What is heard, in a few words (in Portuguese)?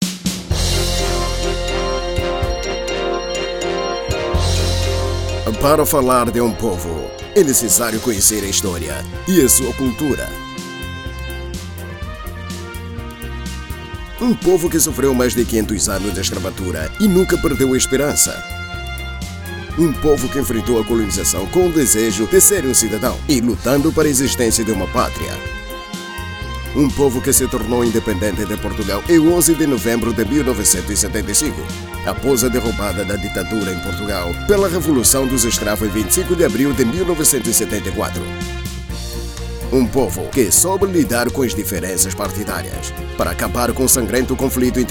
INSTITUCIONAL; UM POVO CHAMA-SE ANGOLA